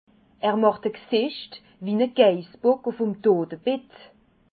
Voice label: "gsicht", alsace

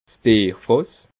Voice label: "bierfass", alsace

